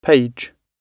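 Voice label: "page", British English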